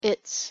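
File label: pronunciation "its", English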